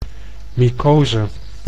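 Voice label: "mycose", Dutch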